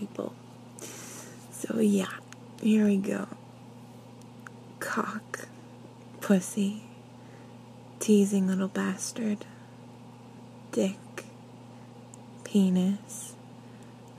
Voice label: Accent Challenge; F4A; Accent Challenge Accepted